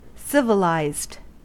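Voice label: "civilized", US English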